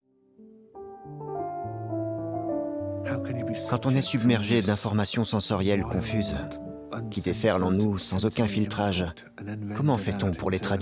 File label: Voice over; The reason i jump